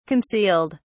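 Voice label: "concealed", British English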